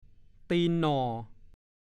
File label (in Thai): "ตีนหน่อ", ภาษาโคราช